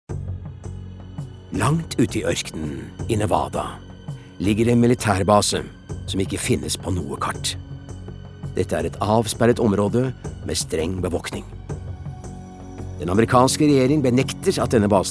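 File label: Norwegian documentary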